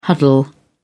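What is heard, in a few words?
"huddle", English, uk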